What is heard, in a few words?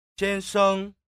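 "xian sheng", Chinese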